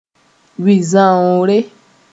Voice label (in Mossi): "wisãoore", Moore